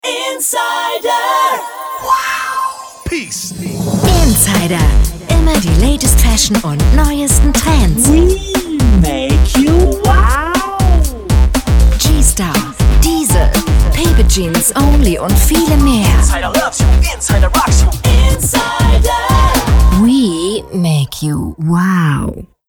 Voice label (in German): RADIOSPOTS; Insider